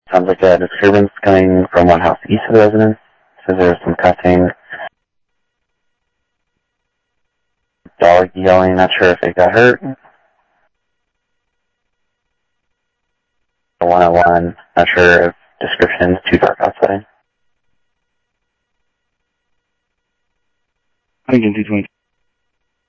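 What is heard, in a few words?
Sheriff West County, scanner audio; MCSO - WEST; ThompsonPeakScot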